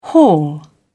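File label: "hall", British English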